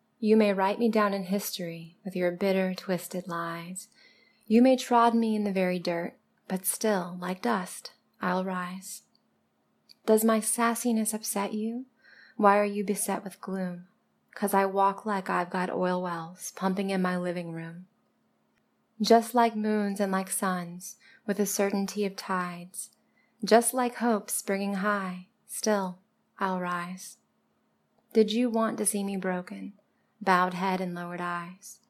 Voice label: poetry reading; F4A; Still I Rise by Maya Angelou